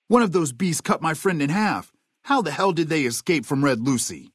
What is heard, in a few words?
male adult greeting